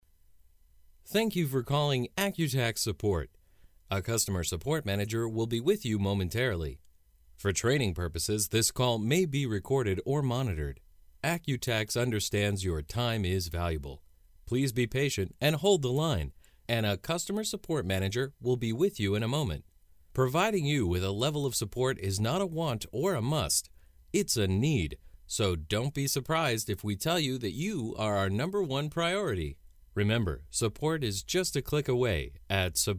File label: VO IVR